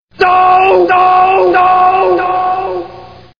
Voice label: "Doh" Reverb